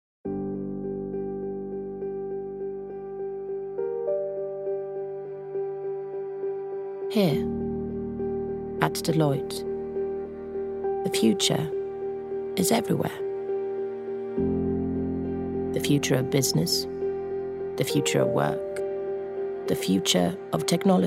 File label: female, Swedish, English with undetectable accent corporate; voices; deloitte; devine voices